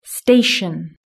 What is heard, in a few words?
"station", British English